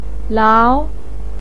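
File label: "lao", Mandarin pinyin, tone 2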